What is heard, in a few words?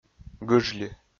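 "гыжли", Komi-Zyrian, male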